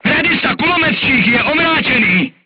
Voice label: voice message machine gunner contused, crew tanks czech